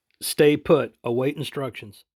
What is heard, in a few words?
Stay Put English